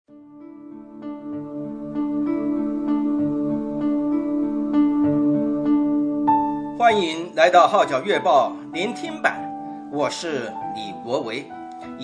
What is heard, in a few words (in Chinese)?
Mandarin; Herald